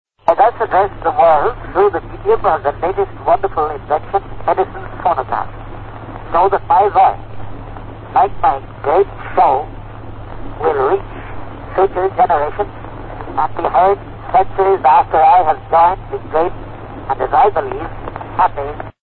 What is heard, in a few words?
Commercial; PT Barnum; 1865-1897; gilded; harrison